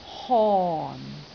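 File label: "hon4", Cantonese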